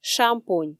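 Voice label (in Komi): "шампунь", Komi, female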